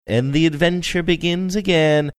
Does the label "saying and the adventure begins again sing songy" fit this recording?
Yes